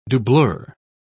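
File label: "doublure", British English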